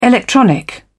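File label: "electronic", British English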